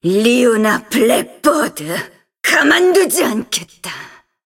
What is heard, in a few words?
cinematic voice; lineage2